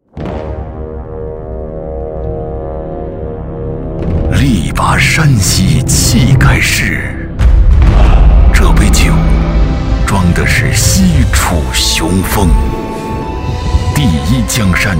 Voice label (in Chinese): 宣传片; 洋河